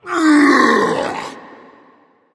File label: yell; Smite; bakasura